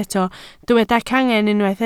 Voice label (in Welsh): "cangen" Welsh